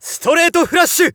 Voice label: Narration POKER STRAIGHT FLUSH

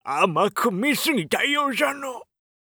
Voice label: oldman down, voice

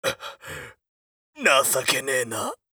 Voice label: macho down, voice

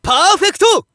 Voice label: Narration ROUND Perfect

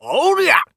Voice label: oldman attack

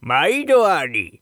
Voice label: shop oldman maido, voice

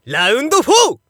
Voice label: Narration ROUND

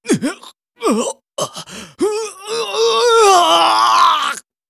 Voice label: voice monster

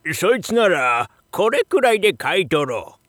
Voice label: shop oldman, voice

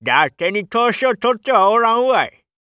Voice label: oldman win

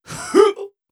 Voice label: voice monster

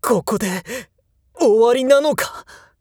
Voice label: jakigan down, voice

